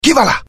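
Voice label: curious, Italian; mohaa; dialogue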